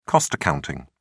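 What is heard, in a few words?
"cost accounting", British English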